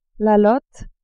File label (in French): "lotte", french